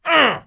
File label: hurt, pain; player; Dystopia